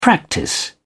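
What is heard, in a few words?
"practice", English, British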